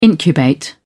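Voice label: "incubate", English, British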